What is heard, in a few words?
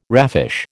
"raffish", American